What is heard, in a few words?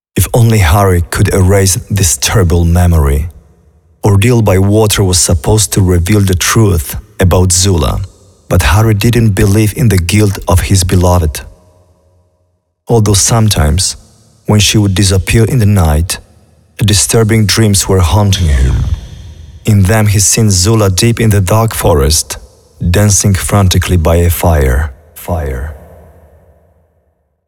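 Voices VO English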